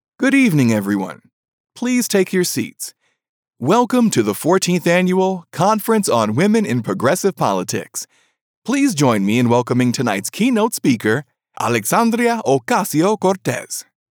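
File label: voice of god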